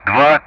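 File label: Speech Russian; DCS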